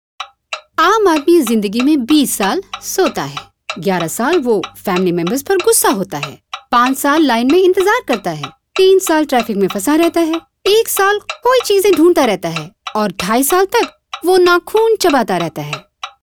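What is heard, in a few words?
Hindi, female; Barclays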